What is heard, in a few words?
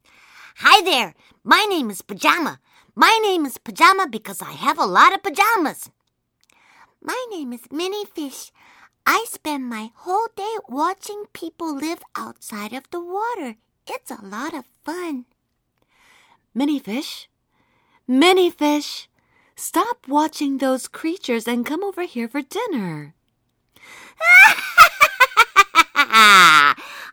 en character voices